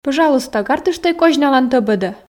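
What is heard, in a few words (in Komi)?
пӧжалуйста гартыштӧй козьналан тӧбӧдӧ, Komi, female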